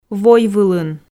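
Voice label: "войвылын", Komi, female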